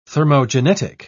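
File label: "thermogenetic", British English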